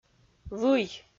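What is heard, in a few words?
"вый", Komi, female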